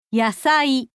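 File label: "yasai", Japanese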